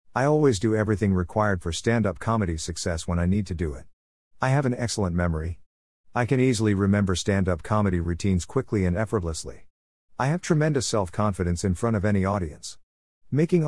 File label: Self Talk Male